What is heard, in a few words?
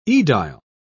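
"edile", British English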